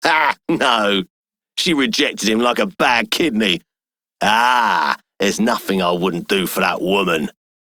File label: Male Generic; Dialog; Warframe; Solaris Venus